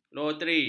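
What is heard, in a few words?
"loteri", Malay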